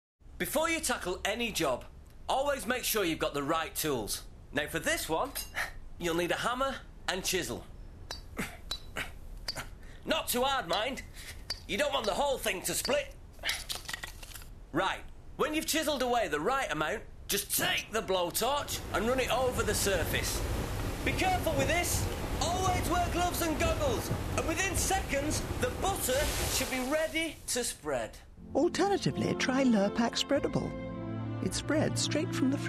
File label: Animated, Bold, Humorous; Lurpak